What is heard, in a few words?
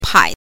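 "pai", Mandarin Chinese, neutral tone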